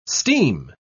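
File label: "steam", English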